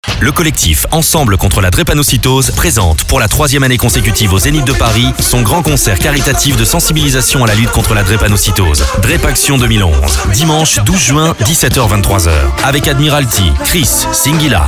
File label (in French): spot pub; DREPANO